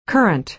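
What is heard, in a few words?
"currant", American English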